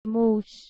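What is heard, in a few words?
"muS", Persian, female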